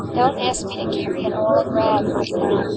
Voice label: speech est